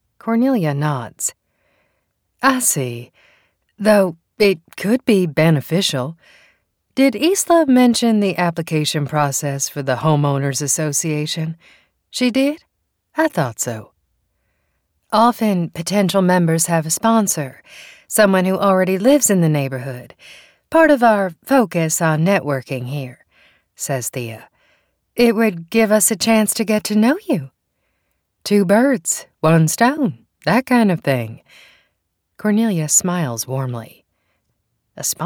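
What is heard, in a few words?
audiobooks 3rd person dialogue accent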